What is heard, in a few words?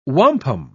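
"wampum", English, British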